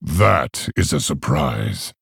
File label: Male Orc